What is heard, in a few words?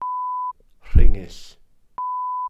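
"rhingyll", Welsh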